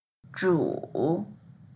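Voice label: "zhu3", Chinese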